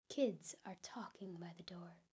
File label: real calm for anger, speech; GAN for speech spectrogram